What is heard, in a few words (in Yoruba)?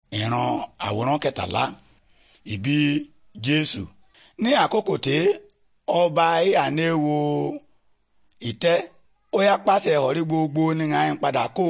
good news yoruba, bible